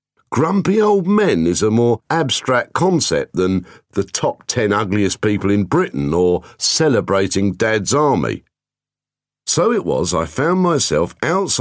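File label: English Cockney male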